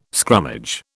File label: "scrummage", British